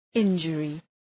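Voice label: "injury", English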